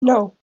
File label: child says nope; Reactions Soundboard